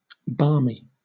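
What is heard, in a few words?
"barmy", British English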